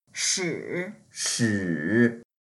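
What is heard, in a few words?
"shi3", Mandarin Chinese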